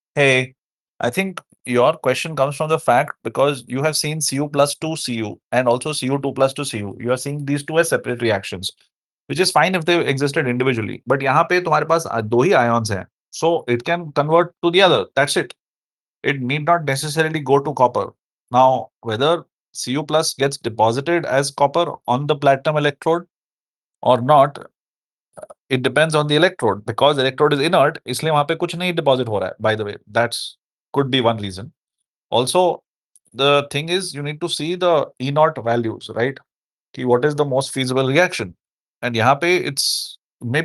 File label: voice message